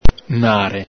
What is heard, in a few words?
"nare", Venetian